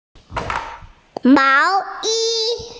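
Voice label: "sweater", Mandarin, hearing-impaired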